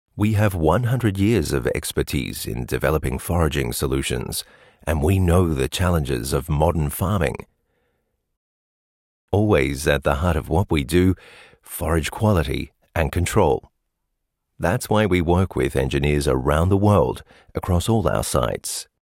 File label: EN Imagefilm; Fendt; LOFT VOICES